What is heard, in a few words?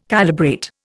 "calibrate", Indian